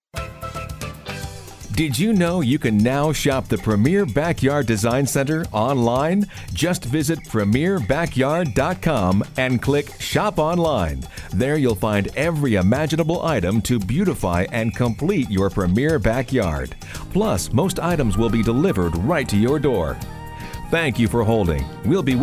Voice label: phone